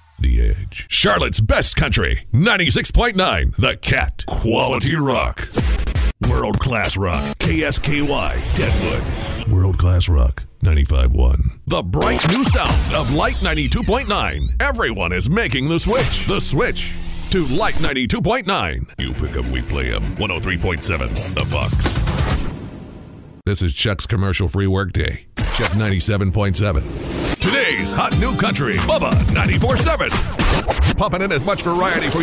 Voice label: Radio TV imaging